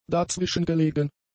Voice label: "dazwischengelegen", German; adjectives